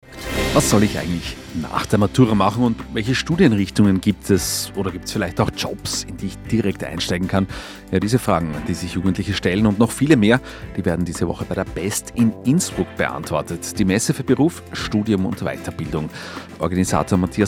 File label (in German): Radio Beitrag; Innsbruck